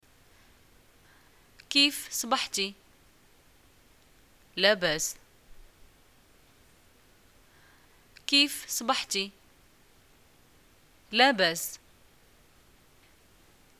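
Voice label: greeting morning, Moroccan Arabic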